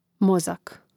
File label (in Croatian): "mozak", Hrvatski